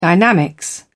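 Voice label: "dynamics", British English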